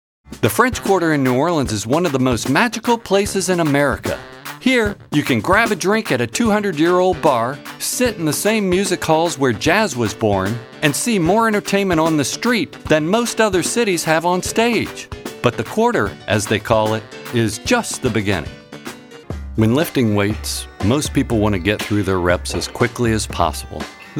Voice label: Narration